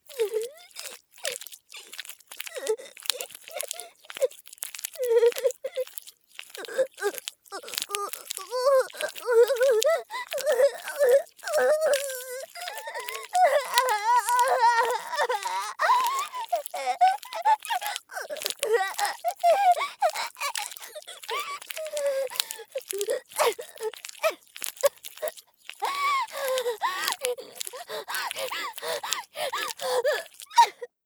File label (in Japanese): 屈辱的; 効果音あり